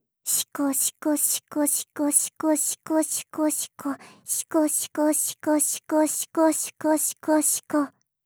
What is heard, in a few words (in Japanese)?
シコシコオンリー 速く